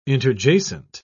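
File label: "interjacent", English, British English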